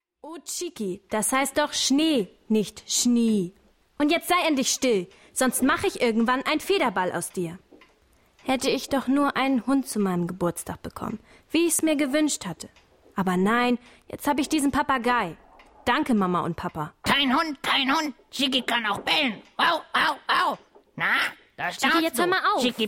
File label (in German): Hoerspiel Szene